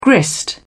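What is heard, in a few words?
"grist", British English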